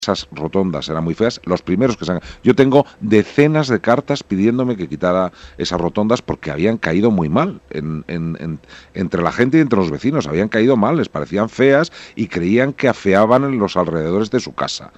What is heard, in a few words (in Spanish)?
noticias